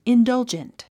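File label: "indulgent", English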